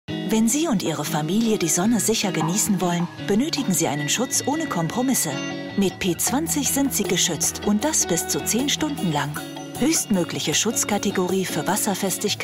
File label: commercial german